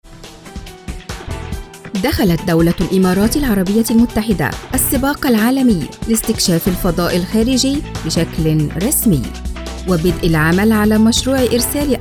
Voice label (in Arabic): اخبارى بالموسيقى; مسبار الامل